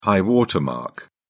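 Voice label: "high water mark", English, British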